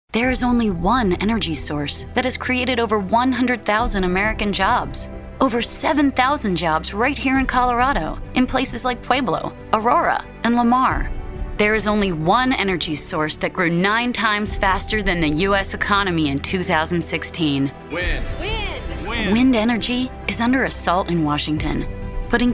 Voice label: Democrat Voiceover, Political Voices English